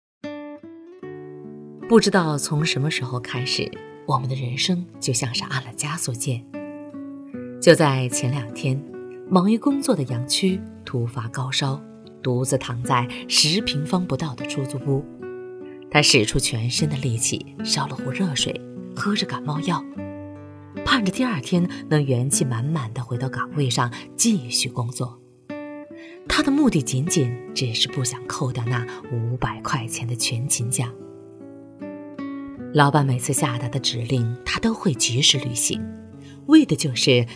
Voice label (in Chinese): 女 宣传片 都市温情解说